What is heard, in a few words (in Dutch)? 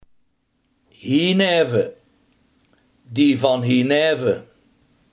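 hiernaast, Limburgse gesproken woordenboeken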